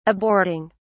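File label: "aborting", English, British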